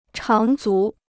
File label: "长足", Chinese